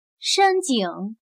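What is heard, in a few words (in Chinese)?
"深井", Chinese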